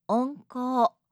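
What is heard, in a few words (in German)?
"onko", japanische Mädchennamen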